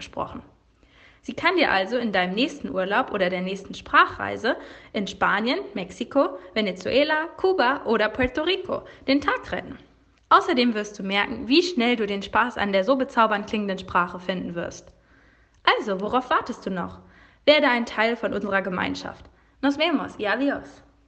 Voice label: spanisch; dritte fremdsprache

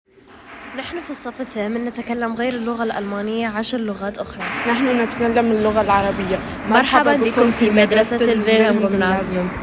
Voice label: arabisch

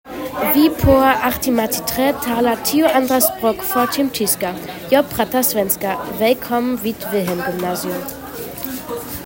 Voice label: schwedisch

